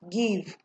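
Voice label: "give", Nigerian Pidgin, female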